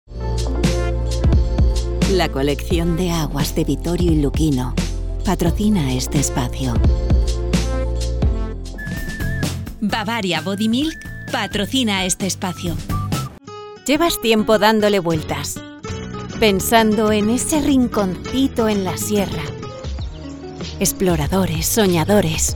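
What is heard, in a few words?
comercial, Spanish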